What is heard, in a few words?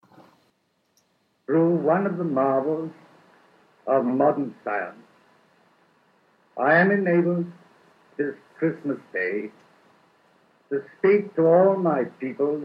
A royal broadcast, older general british